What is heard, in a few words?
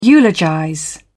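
"eulogize", British English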